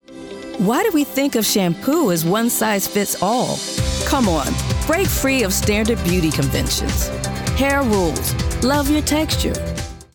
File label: Commercial; Hair Rules